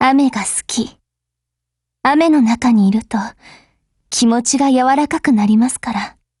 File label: DIALOGUE JP; AUG